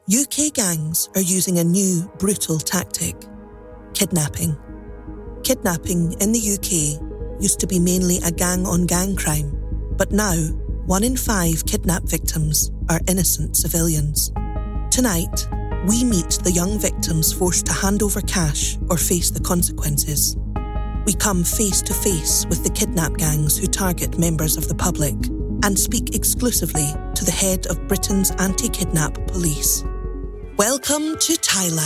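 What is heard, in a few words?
Documentary reel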